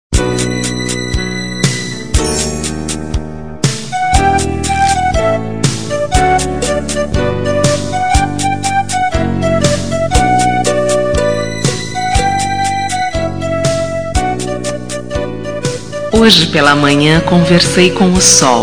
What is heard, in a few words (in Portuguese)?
ROM NEUTRA FEM